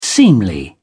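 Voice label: "seemly", British English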